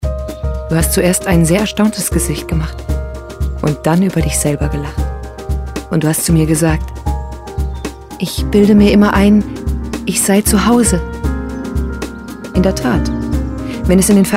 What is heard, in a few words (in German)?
Hörbuch, Sprache